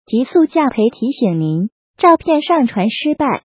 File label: take Photo No Respond; ComposeSound